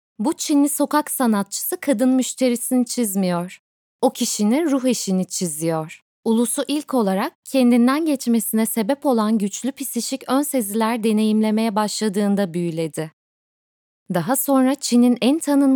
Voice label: 土耳其女

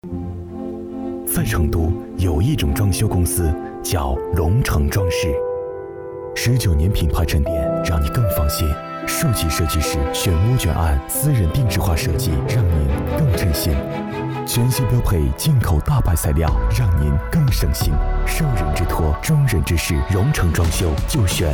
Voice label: 广告深情唯美

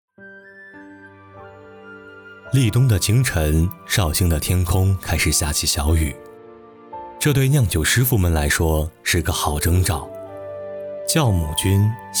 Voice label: 纪录片; 舌尖

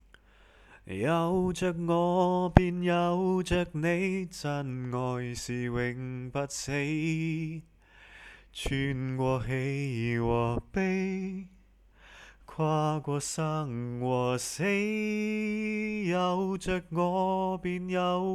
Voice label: 粤语

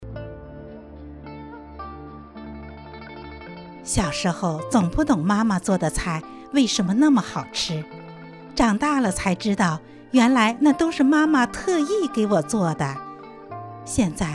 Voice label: 品牌 广告

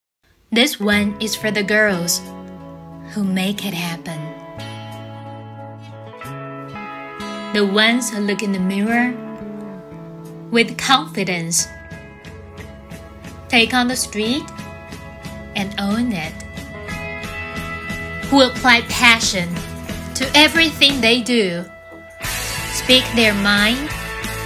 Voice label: 英文广告时尚大气; 英文配音; 美式英语; 时尚广告; 气质大气; 年轻时尚

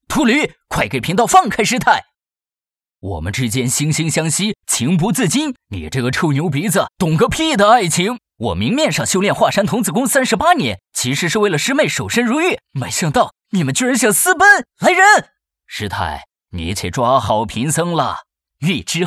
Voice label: 游戏宣传 多角色; 我功夫特牛

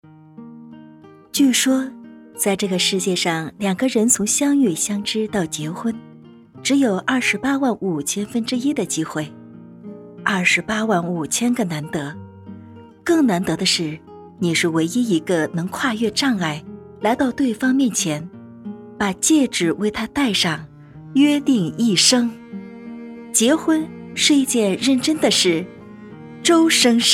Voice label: 钻戒广告; 周生生

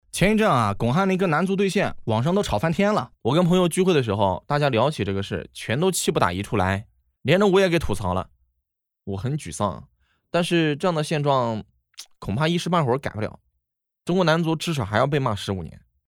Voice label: 素人采访

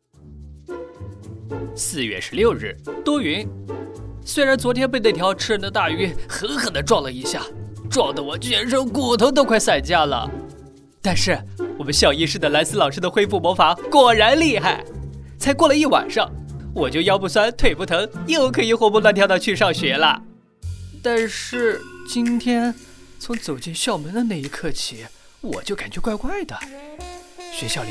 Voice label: 样音幽默角色

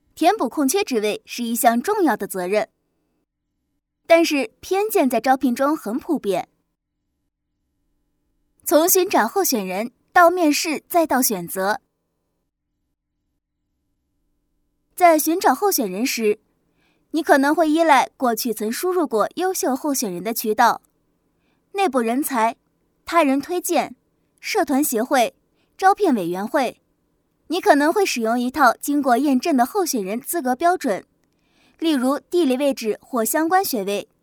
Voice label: 译制片旁白

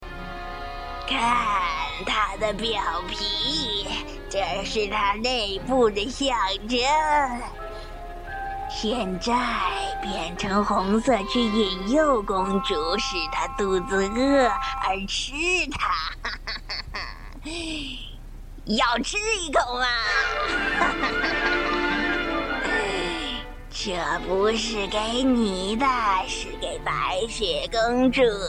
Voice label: 角色恶毒巫婆

